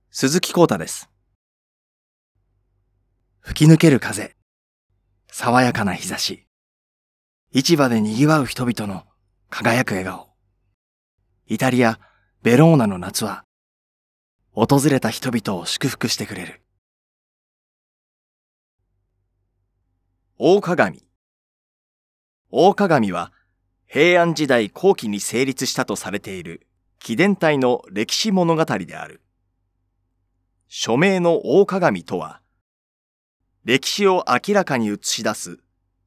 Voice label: narration